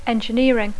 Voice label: "engineering", English; Dacco